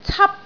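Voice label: "cap1", Cantonese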